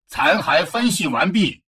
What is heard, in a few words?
advisor notification debris analysed, chinese; Stellaris